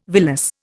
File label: "villus", Indian